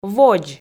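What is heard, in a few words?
"водз", Komi, female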